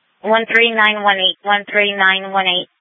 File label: Sheriff, scanner; Shrf Southeast